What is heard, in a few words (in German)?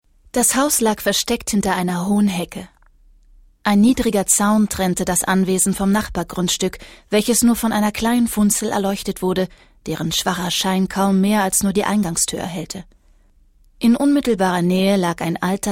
hoerbuch